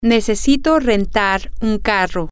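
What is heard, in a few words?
i need to rent a car, spanish, female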